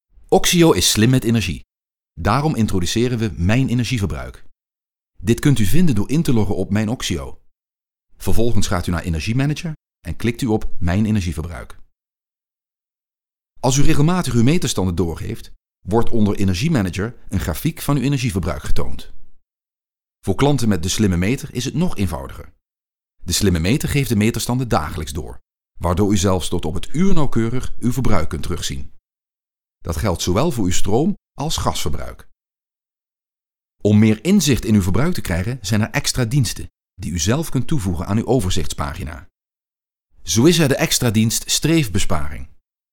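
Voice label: Dutch, E-Learning; GoLocalise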